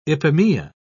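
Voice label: "ipomoea", English, British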